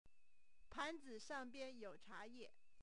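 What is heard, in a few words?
pan2 sentence, Mandarin Chinese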